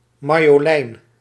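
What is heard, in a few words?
"marjolein", Dutch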